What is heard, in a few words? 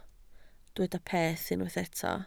"peth", Welsh